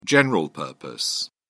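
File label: "general-purpose", British English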